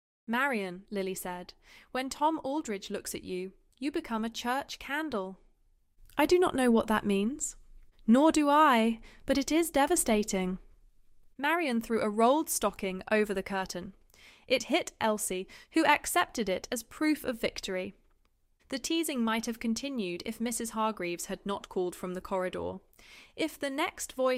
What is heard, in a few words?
audiobook sample